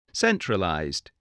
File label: "centralized", English, voice